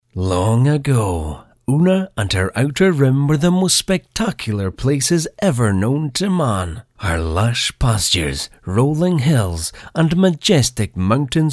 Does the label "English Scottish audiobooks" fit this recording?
Yes